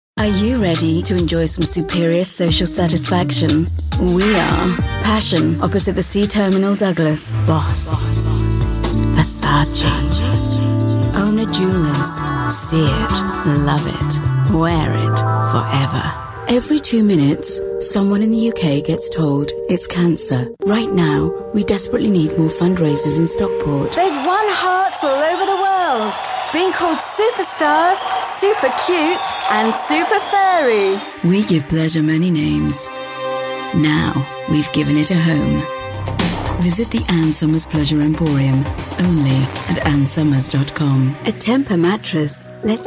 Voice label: commercial, Female Voiceover

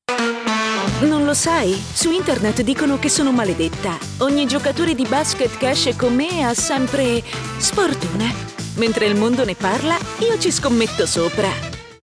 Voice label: Italian female commercial

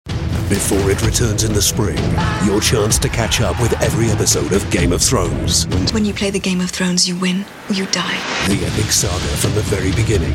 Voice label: tv promo

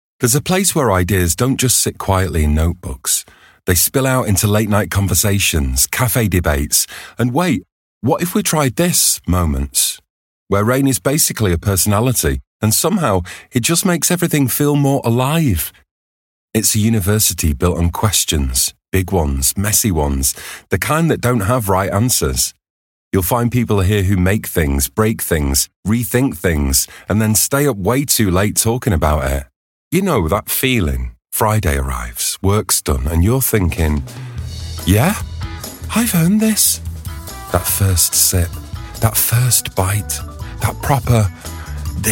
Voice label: northern

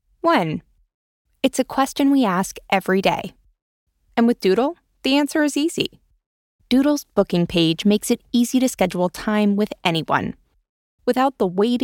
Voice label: explainer

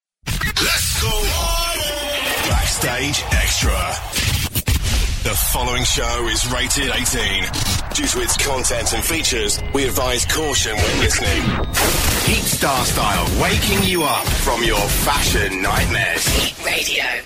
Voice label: imaging, voiceover

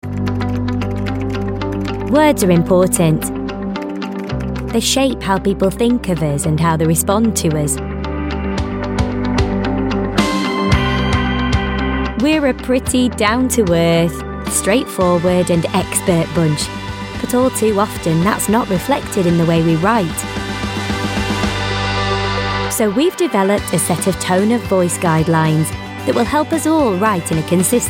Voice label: corporate; openreach

